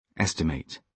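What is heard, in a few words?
"estimate", British English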